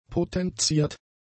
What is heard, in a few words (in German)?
"potenziert", German; adjectives